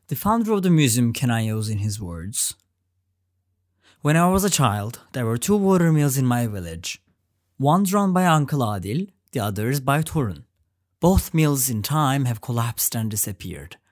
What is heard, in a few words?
english